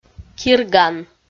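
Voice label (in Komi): "кирган", Komi, female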